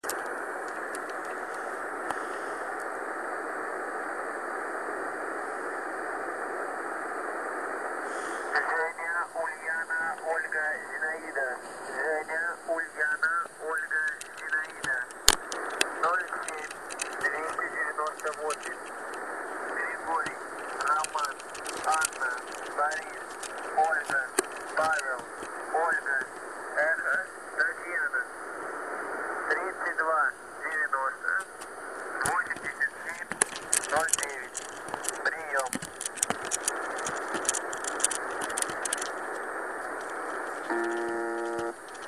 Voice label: voice message